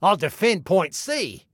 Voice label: DEFEND, Male; Male 02